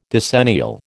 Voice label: "decennial", American